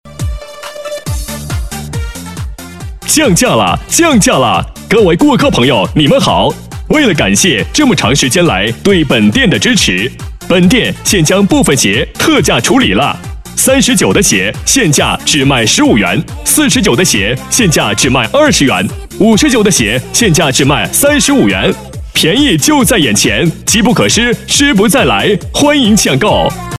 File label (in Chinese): 鞋子 欢快